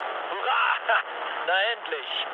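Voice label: German enemy detected mood low, speech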